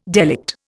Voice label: "delict", Indian